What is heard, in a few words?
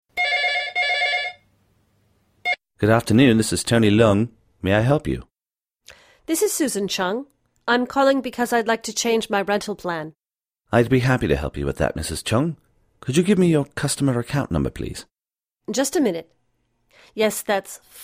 telephone